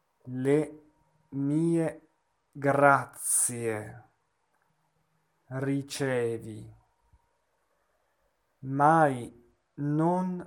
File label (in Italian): monotono